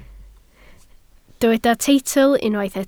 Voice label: "teitl", Welsh

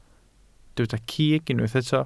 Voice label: "cig", welsh

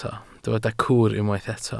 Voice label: "cwr", Welsh

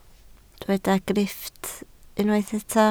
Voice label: "grifft", Welsh

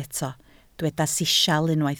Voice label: "sisial", Welsh

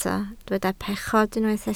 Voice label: "pechod", Welsh

